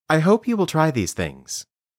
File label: Dictation